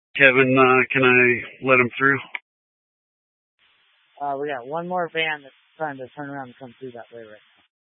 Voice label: Tactical Incidents, scanner